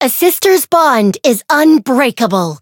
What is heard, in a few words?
variable chant